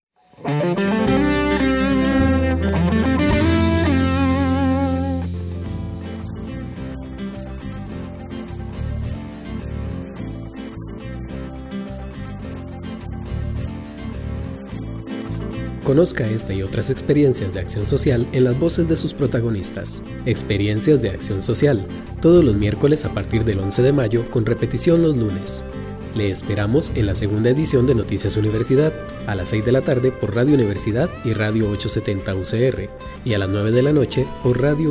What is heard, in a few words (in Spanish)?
cuña informativa